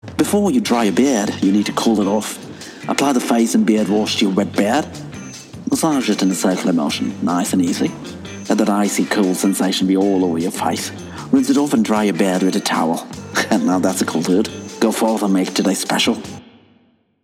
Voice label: Hi Brit